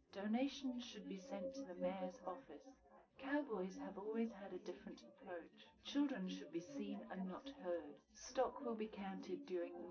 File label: female, live rec, 250ms, 5cm